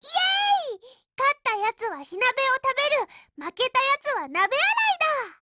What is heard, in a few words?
SMG WIN JP